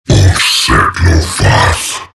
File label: morph demon; terrorblade